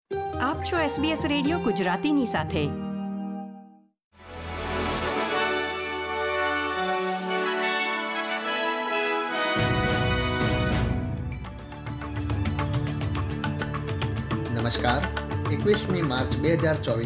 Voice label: gujarati news bulletin; sbs